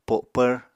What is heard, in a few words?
"po7pvr", Apatani